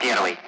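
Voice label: Speech, Russian; Allied Flight; DCS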